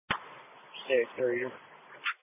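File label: Police Patrol Dispatch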